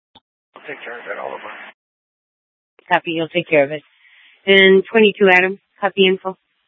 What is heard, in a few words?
Police Dispatch, scanner; Phoenix; 200 Black Mountain Precinct